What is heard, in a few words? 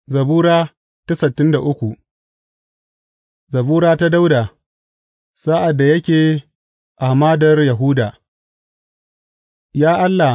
hausa bible drama, psalms; hausa bsn nt drama